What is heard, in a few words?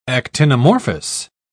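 "actinomorphous", British English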